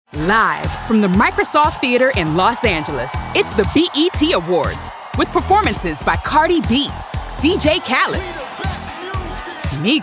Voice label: Voice of God Urban Excited Live Announcer Energetic; BET Awards